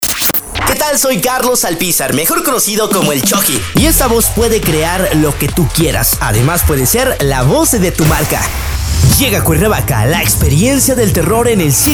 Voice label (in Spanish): spots, voces